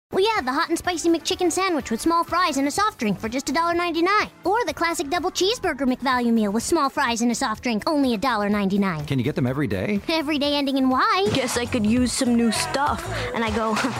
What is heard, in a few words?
commercial